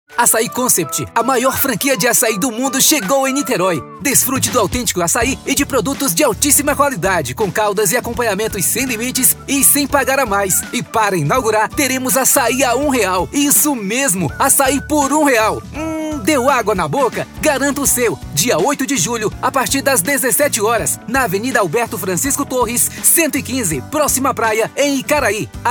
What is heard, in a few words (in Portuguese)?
spot publicitario; acai concept niteroi rj